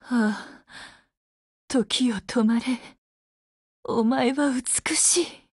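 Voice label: Halloween DEAD Japanese, Voice; GF Alarm; Intruder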